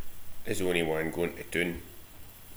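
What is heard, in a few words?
"onywan", slang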